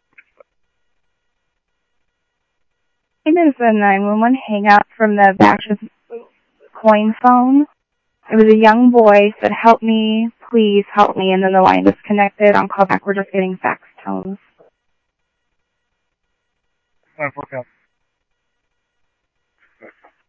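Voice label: Mesa Simulcast Police Department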